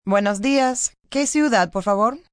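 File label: What City Spanish, Telephone operator, Spanish, female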